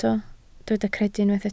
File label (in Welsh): "credu", Welsh